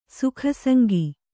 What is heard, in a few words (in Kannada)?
"ಸುಖಸಂಗಿ", Kannada